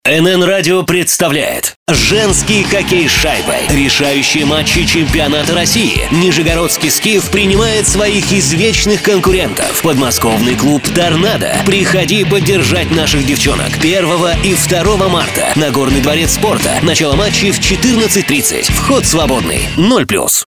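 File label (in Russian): Radio announcement; SKIF Tornado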